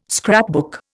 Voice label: "scrapbook", Indian

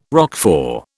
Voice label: "Roquefort", British

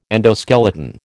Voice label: "endoskeleton", American

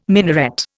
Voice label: "minaret", Indian

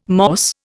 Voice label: "Morse", Indian

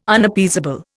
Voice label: "Unappeasable", Indian

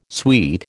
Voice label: "sweet", American

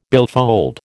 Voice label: "billfold", American

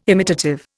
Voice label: "imitative", Indian

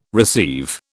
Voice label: "receive", British

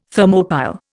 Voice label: "Thermopile", Indian

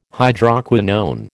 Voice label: "Hydroquinone", American

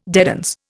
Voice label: "dedans", Indian

